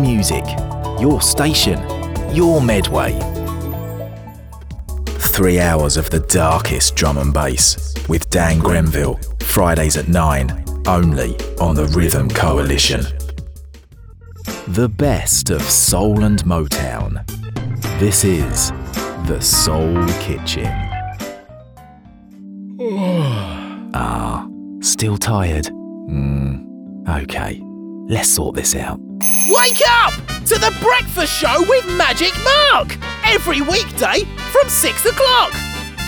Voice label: promo reel